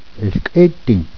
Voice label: "Ellkkeydihn", Chipewyan

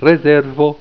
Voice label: "rezervo", esperanto

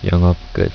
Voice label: closed, korean

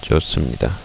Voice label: "good", Korean; Cho-sum-ni-da

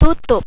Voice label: close, indonesian

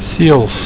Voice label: "seels", Afrikaans

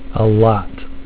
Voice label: "A lot", English

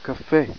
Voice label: coffee, hebrew

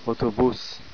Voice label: "bus", Hebrew

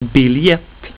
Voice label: "biljett", swedish

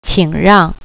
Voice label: "excuse", Mandarin

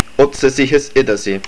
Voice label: Straight, estonian

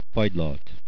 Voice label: Icecream, hungarian

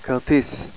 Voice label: "ticket", Hebrew

